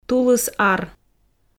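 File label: "тулыс-ар", Komi, female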